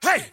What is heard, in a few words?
"hey" male